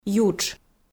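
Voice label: "ютш", Komi, female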